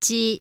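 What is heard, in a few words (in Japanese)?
"Chi", Japanese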